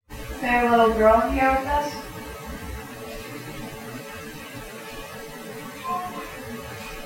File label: All girls team voice